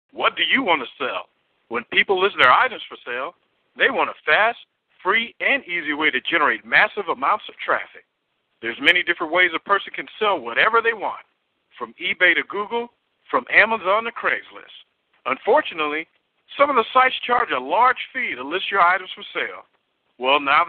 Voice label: Commercial